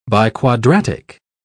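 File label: "biquadratic", British English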